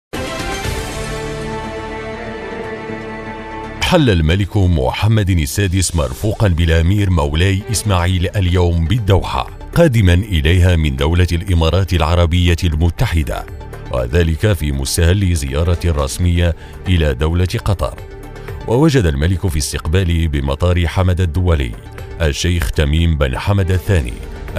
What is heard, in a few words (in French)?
Ton journalistiqe